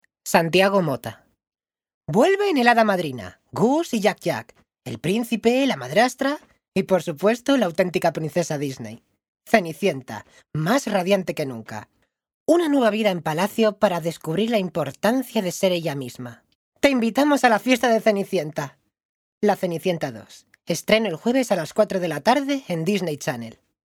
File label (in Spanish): Corporativo